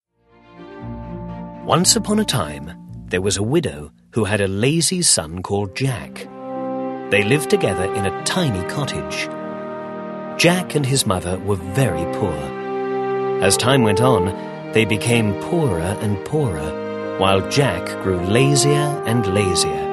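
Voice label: female, English, narration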